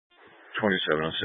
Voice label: Sheriff Dispatch, scanner